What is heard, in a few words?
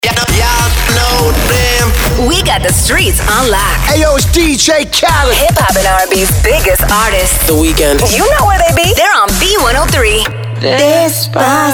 radio women english urban, radio imaging